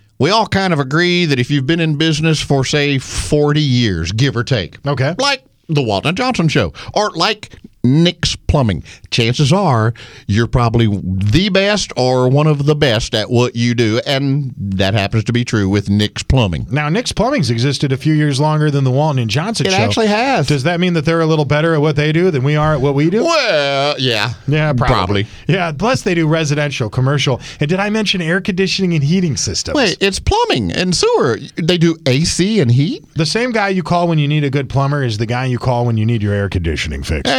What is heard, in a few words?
RADIO AD; nicks plumbing radio ads